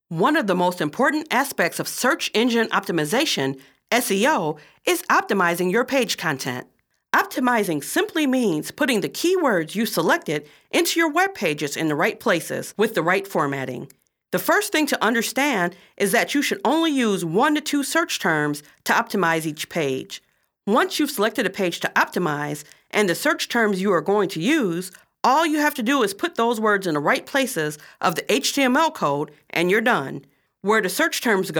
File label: SEO learning dry